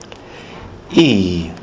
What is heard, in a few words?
i as in nikau, kiwi english